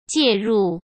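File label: "介入", Mandarin Chinese; chinese-flashcards; hsk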